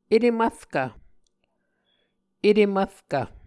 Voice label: "etemvrkv", muscogee

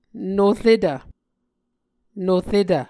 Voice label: "noretv", Muscogee

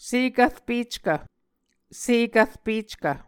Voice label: "sekvrpeckv", Muscogee

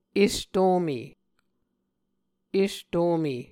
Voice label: "estome", Muscogee

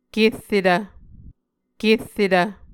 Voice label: "kerretv", Muscogee

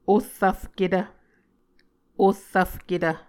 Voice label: "ohrvfketv", Muscogee

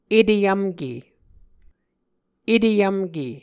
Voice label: "eteyvmke", muscogee

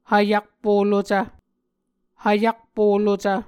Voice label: "hvyakpo lucv", Muscogee

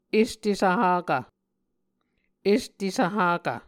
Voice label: "este svhakv", Muscogee

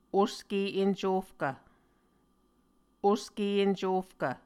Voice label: "oske encofkv", Muscogee

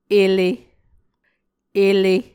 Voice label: "ele", Muscogee

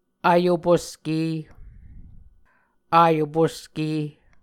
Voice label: "ayoposke", muscogee

